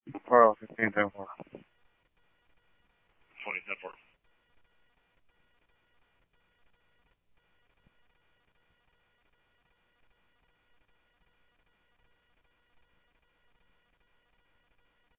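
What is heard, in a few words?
police department, scanner audio trunk; H-Scottsdale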